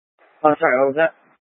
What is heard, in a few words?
Light Rail Emergency, scanner